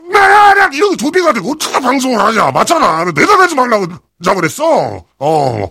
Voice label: heavy cheers